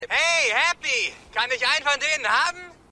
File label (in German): Mann Werbespot; Happy Gilmore